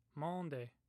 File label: "monde", Omaha